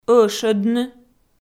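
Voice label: "ӧшӧдны", Komi, female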